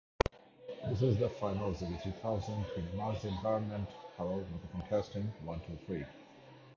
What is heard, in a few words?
Noisy